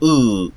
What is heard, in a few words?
close back unrounded vowel, phoneme